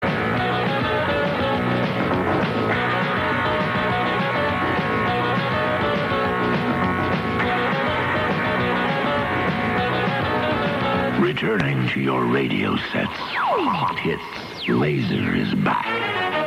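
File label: Tests announcement; LHH; Laser is back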